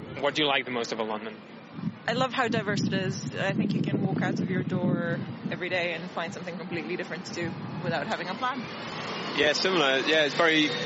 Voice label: noisy london interview